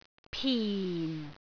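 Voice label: "pin4", Cantonese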